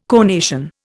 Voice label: "conation", Indian

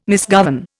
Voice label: "misgovern", Indian

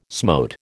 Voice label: "smote", American

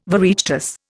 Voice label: "Oireachtas", Indian

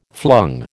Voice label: "flung", American

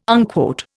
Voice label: "unquote", Indian

